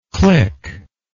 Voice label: "clickless", English

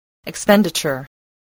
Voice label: "expenditure", voice

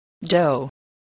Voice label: "dough", spelling

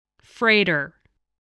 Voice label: "freighter", English voice, spelling

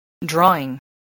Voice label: "drawing", spelling

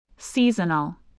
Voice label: "seasonal", english spelling

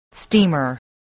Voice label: "steamer", voice

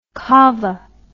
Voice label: "cava", English

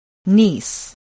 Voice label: "niece", voice

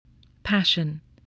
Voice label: "passion", English, voice

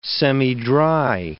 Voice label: "semidry", English

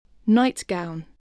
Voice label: "nightgown", voice

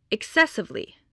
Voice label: "excessively", voice, english

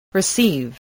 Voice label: "receive", voice spelling

